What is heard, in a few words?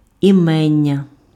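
"імення", Ukrainian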